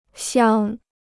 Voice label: "降", Chinese

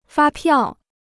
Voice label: "发票", Chinese

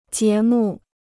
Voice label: "节目", Chinese

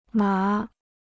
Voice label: "麻 (má)", Chinese

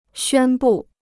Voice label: "宣布", Chinese

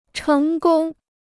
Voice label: "成功", Chinese; chéng gōng; success

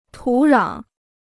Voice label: "土壤", Chinese

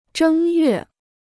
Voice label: "正月", Chinese

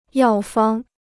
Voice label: "药方", Chinese

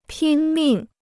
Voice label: "拼命", Chinese

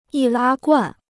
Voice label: "易拉罐", Chinese

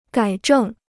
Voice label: "改正", Chinese

